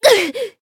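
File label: damaged, Japanese; channon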